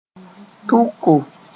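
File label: "tʋko", Mooré; reservoir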